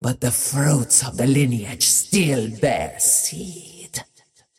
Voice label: monologue; dota; muerta